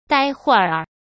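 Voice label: "待会儿", Mandarin Chinese; chinese-flashcards; hsk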